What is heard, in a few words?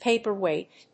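"paperweight", English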